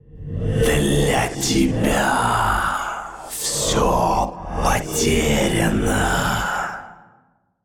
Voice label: Male Old God In Play; Hearthstone